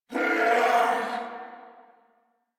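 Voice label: Throw Grenade Corpus Venus Male, en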